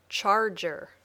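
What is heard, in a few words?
"charger", English word; Passport to English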